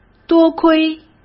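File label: "多亏", Mandarin Chinese; hsk; chinese-flashcards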